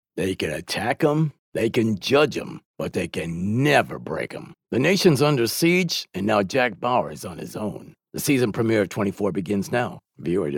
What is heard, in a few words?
TV PROMO; JACK BAUER